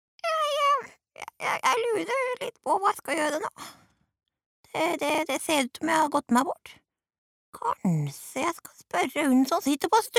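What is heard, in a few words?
Norwegian dub character, female; norsk; kvinne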